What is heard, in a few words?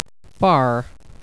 "far", USA